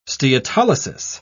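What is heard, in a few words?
"steatolysis", British English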